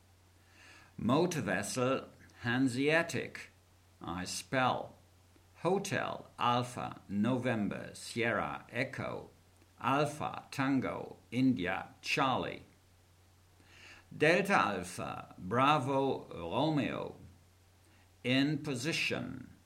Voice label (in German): Seefunktext